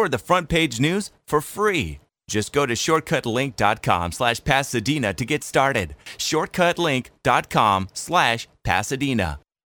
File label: Podcast